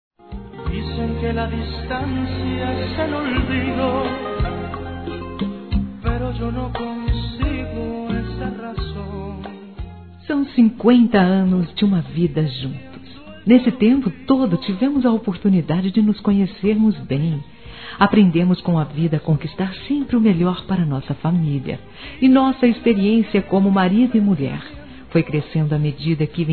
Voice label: Bodas de Ouro Feminino, Telemensagens; Bodas e Casamento

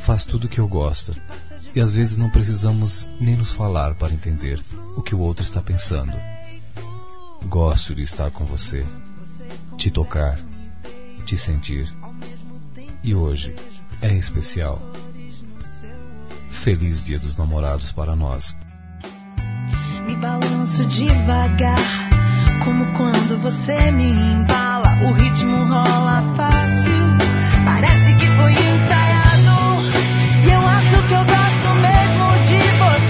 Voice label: ficam a menos de uma ano Masculino; Telemensagens; Dia Dos namorados; Telemensagens 2017

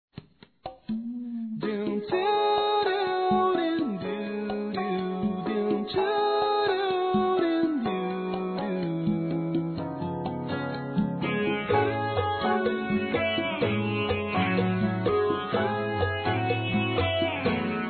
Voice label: ROMANTICA (M); Telemensagens 2017; Românticas

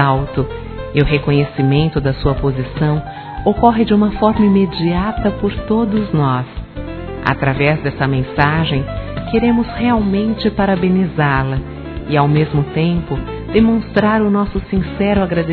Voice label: Aniversário Chefe Feminino; Telemensagens; Empresarial; Telemensagens 2017

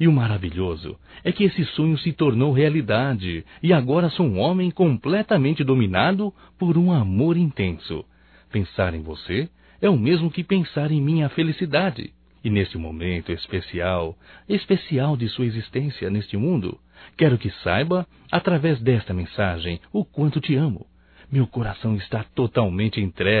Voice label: Aniv Romântico (M), masc; Telemensagens 2017; Aniversarios

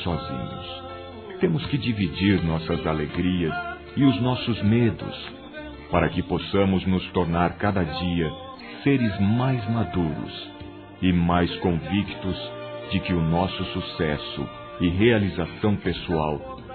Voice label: Amizade, Telemensagens, Masc

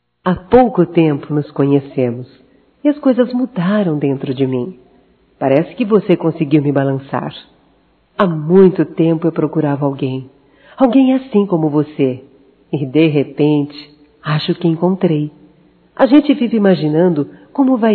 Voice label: ROMANTICA(F), Românticas, fem

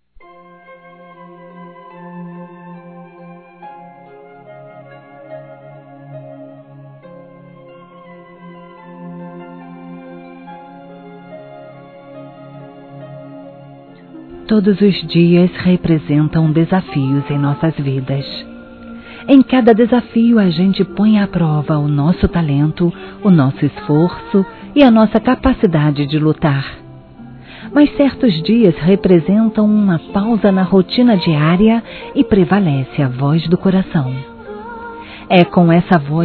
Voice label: ANIV. CHEFE F; Aniversários; Aniversários diversos; fem

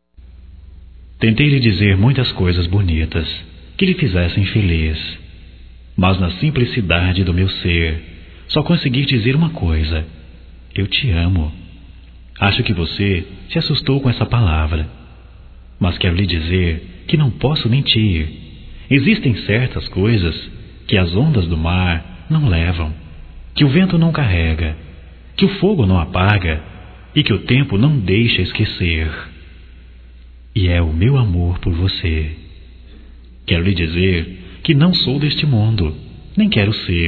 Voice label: ROMANTICA GERAL, Românticas masc

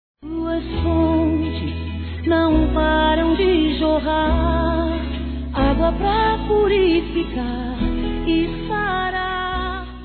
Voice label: Telemensagens, Aniversários, Fem; ANIV SOGRO (A); Telemensagens 2017; ANIV. Evangelico

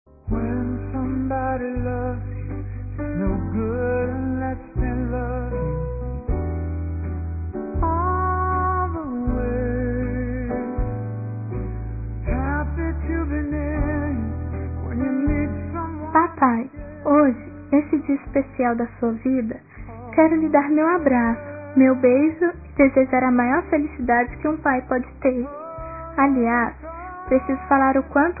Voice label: FILHA CRIANÇA PARA PAI, fem; Telemensagens 2017

